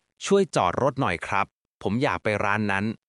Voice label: Thai, basic thai phrases; a list of 100 basic thai phrases you can use while traveling; タイ旅行で必須の「伝える力」を磨く！カタカナ発音で覚える基本の100フレーズ